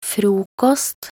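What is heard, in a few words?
"frokost", Norwegian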